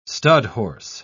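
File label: "studhorse", English, uk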